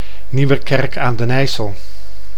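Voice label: "Nieuwerkerk aan den IJssel", Dutch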